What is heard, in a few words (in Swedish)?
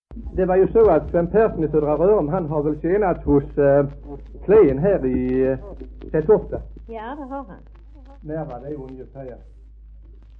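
Höör Skåne, dialekter; Folkminnen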